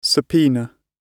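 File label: "subpoena" British English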